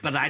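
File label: "buDi", Australian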